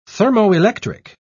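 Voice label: "thermoelectric", British English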